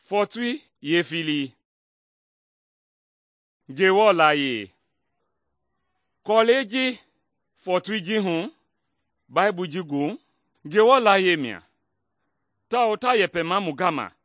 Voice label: mende bsl nt drama; good news mende kpa

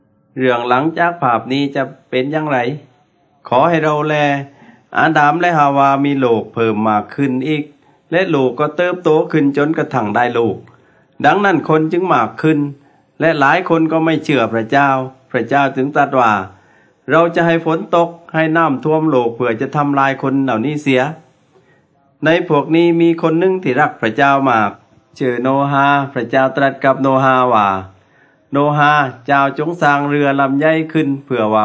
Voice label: words of life creation to christ thai southern

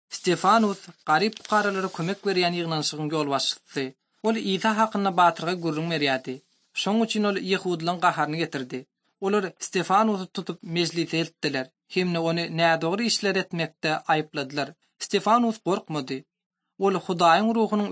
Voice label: turkmen, bible translation drama; acts of the holy spirit; institute for bible translation

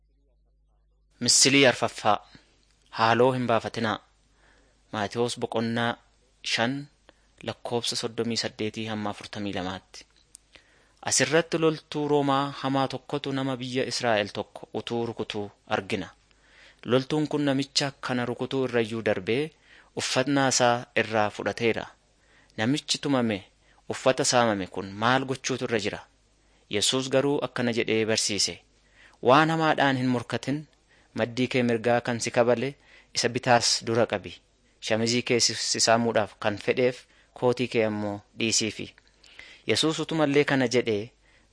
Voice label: oromo wellega; jesus teacher and healer short

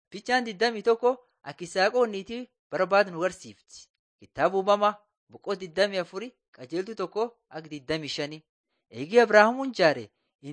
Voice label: borana sakuye

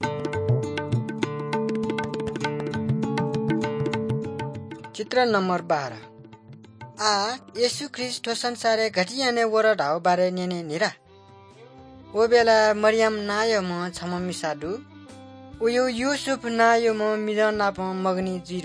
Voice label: good news newari balami; bible

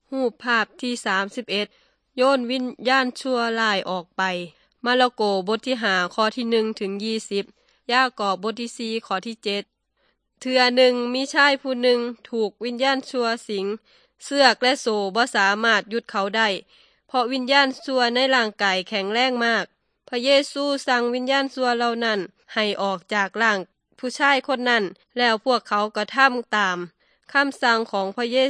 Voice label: good news thai northeastern

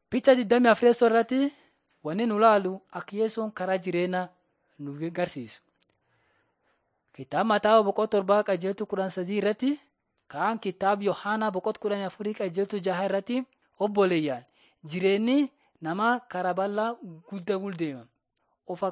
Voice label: borana sakuye; laali chaqasi jiradin 5 jilalacha waaqat on trial for god

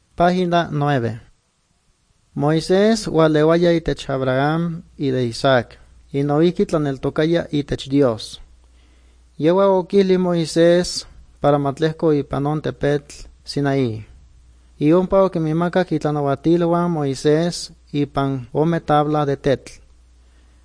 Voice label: good news, nahuatl guerrero chilapa

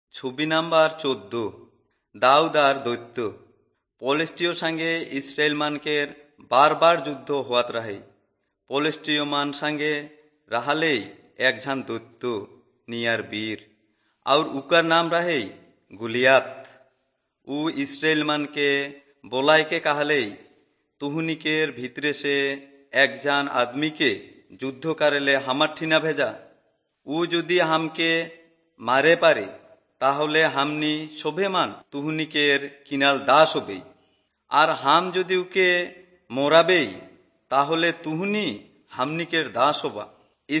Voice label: servants of god urao, kurukh drama; bible

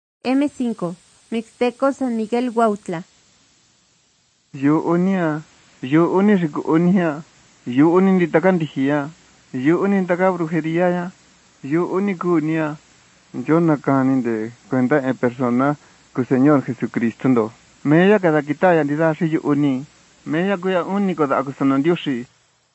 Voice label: mixtec diagnostic spanish mexico

